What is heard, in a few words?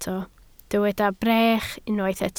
"brech", Welsh